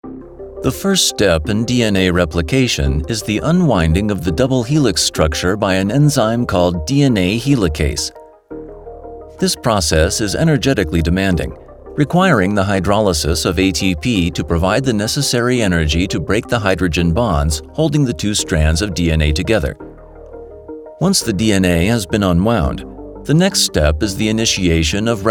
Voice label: eLearning; DNA